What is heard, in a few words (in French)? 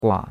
"gua3", chinois; Cours-de-Chinois